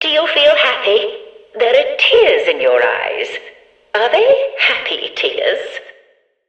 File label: Background Announcements